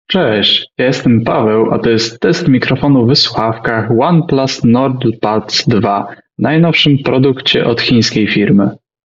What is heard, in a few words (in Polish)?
oneplus nord buds 2 test mikrofonu